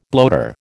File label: "bloater", American